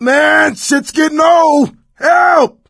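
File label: ledge hang middle, voice, male; Left 4 Dead 2; coach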